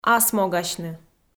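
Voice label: "асмогасьны", Komi, female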